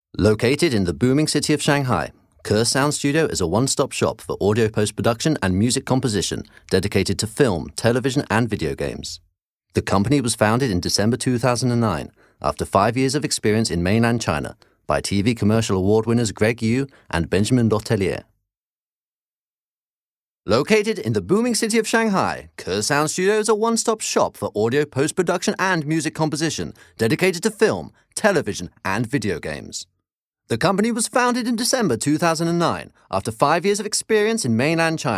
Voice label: voices ENGLISH male adult UK; KER-SOUND

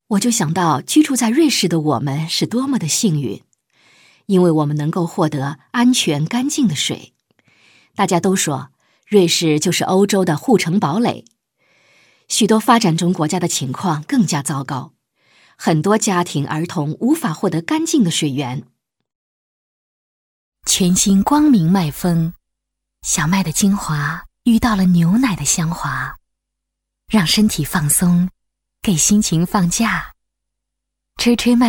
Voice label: voices CHINESE female adult MANDARIN; KER-SOUND

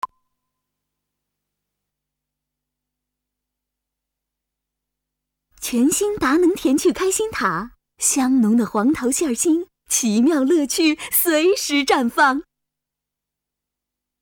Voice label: fly, MANDARIN FEMALE

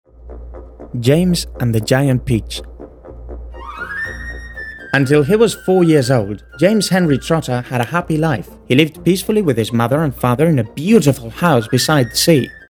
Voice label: ENGLISH - Male - Adult, Spain; Ker Sound Studio Shanghai China

